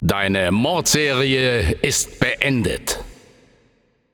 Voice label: Announcer German; Spree End